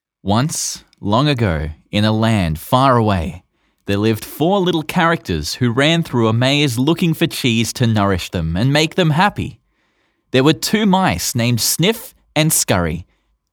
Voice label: Kids Audiobook